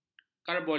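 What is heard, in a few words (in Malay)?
"karbolik", Malay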